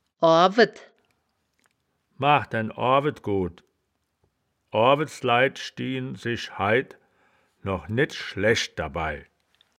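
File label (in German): "oarwed", Wittlicher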